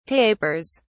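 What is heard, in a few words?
"tapers", British English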